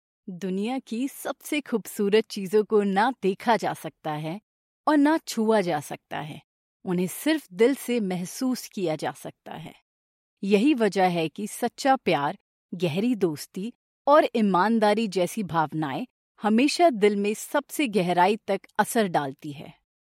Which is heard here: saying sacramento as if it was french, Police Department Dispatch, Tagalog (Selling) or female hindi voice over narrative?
female hindi voice over narrative